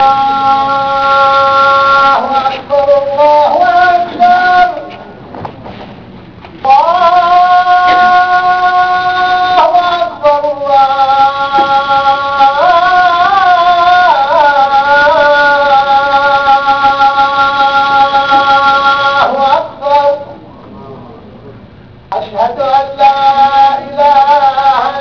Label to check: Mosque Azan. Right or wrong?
Right